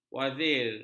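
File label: "wazir", Malay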